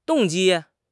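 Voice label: "动机", Mandarin Chinese; HSK; HSK6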